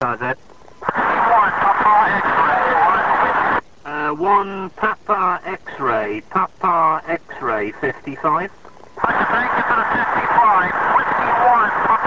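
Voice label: amateur radio contact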